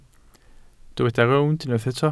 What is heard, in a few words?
"rownd", Welsh